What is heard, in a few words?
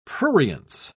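"prurience", British English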